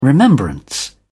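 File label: "remembrance", British English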